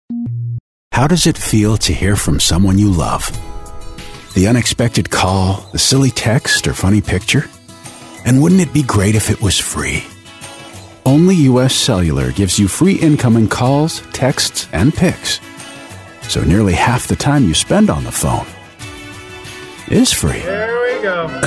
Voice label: Commercial VO